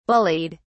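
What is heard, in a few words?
"bullied", British English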